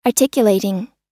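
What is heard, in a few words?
"articulating", American English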